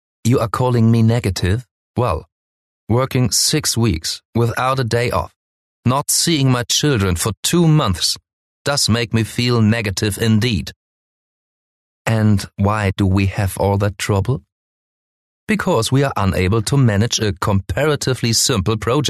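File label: German, male, English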